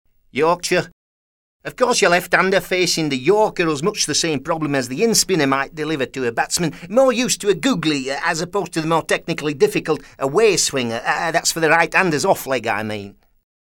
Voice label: YORKSHIRE